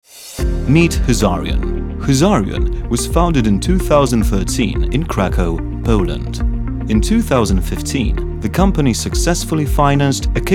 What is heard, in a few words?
Lektor ENG UK; Studio Nonagram